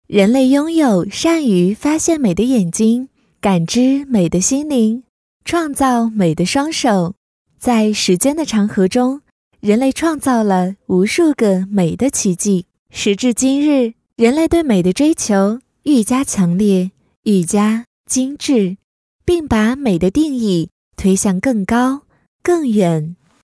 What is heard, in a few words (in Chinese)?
女 夜听 甜美夜听干音